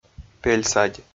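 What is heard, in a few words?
"пель садь", Komi, male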